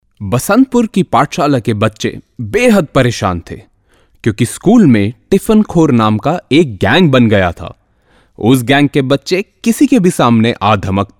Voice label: hindi, male voice